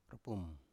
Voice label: "rpum", Biak